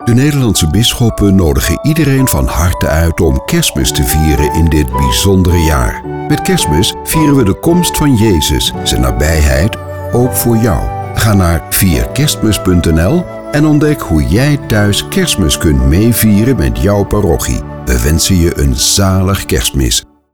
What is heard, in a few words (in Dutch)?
Commercial Kerstmis NL; NPO STER